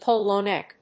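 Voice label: "polo neck", English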